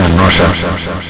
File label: EMOTION VOX; AKAI; SPEAKS KIT